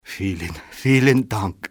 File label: thank, civ male; XBOX